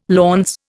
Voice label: "launce", Indian